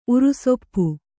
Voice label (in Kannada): "ಉರುಸೊಪ್ಪು", Kannada